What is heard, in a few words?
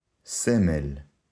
symbol, Hebrew